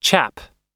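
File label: "chap", US English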